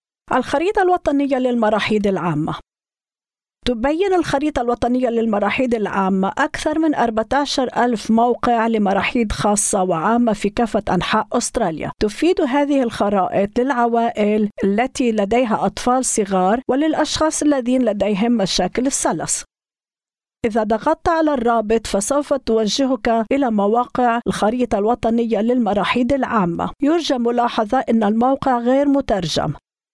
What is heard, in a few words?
Arabic; Continence Foundation; National Public Toilet Map